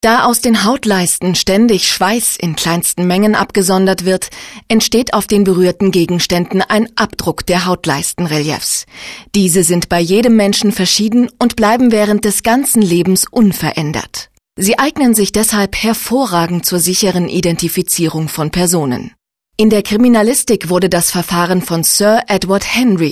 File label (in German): doku